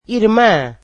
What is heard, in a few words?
portuguese sister